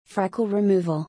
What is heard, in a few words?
"Freckle removal", English; freckles-in-english